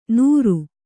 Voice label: "ನೂರು", Kannada

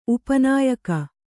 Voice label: "ಉಪನಾಯಕ", Kannada; upa nāyaka; noun

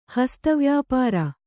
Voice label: "ಹಸ್ತವ್ಯಾಪಾರ", Kannada

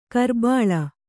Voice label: "ಕುರಿಬಳ್ಳಿ", kannada

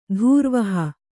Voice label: "ಧೂರ್ವಹ", Kannada; dhūrvaha; carrying, drawing a burden.

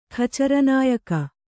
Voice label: "ಖಚರನಾಯಕ", kannada

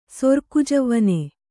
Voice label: "ಸೊರ್ಕುಜವ್ವನೆ", kannada

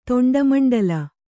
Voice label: "ತೊಂಡಮಂಡಲ", Kannada

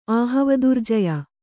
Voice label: "ಆಹವದುರ್ಜಯ", kannada

